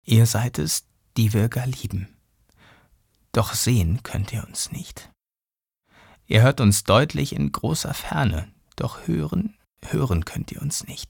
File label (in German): traurig, maenner